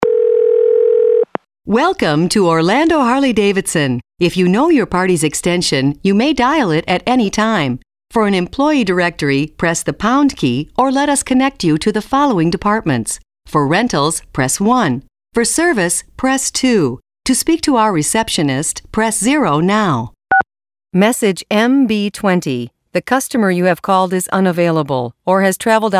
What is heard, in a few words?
Phone Direction